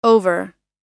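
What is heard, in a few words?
"over", American English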